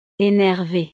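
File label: "enervee", French; estados animo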